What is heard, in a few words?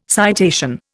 "citation", Indian